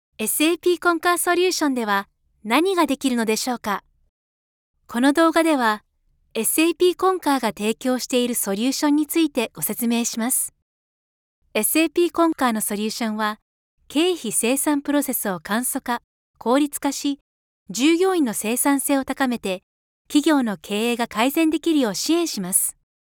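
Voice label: Japanese, female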